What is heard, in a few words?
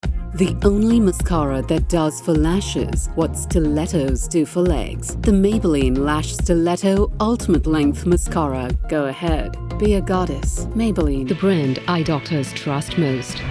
Commercial Long Version; Her unique indiscernible English accent with a hint of British